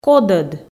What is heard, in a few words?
"кодӧд", Komi